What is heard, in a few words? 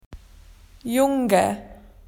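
"boy", Australian English; PictureDictionary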